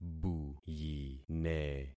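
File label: "buyine", concatenated syllables; Affixing experiment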